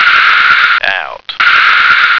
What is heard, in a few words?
"out", American